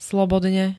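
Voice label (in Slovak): "slobodne", Slovak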